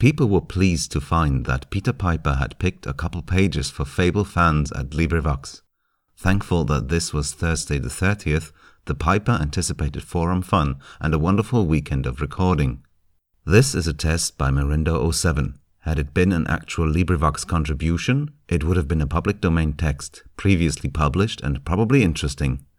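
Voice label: 1 Min with booth on desk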